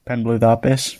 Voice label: birthday, gwentian